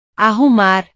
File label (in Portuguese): "arrumar", Portuguese